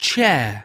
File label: "chair", English